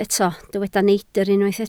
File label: "neidr", Welsh